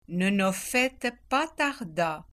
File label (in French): prononciation patois vaudois